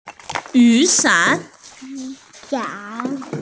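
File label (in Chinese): "yu3san3", Mandarin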